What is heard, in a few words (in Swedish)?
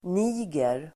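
"niger", Swedish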